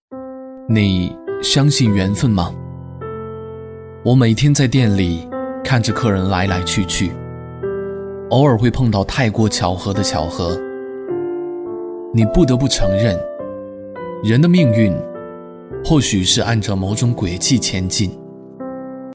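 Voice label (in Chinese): 男国大音-港台旁白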